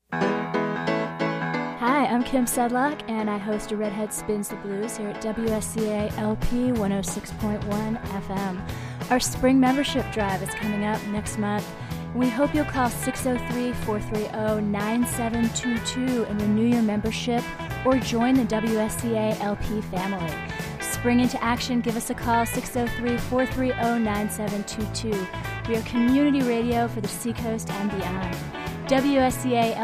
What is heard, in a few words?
commercial